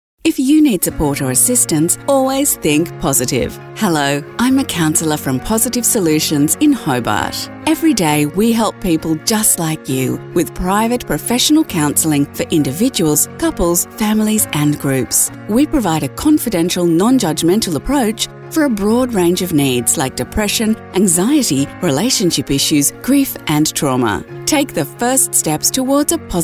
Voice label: Radio Commercial